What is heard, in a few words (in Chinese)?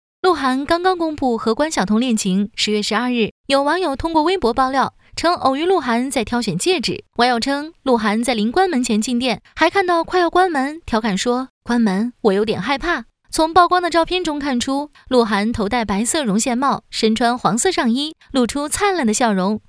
专题 新闻 娱乐新闻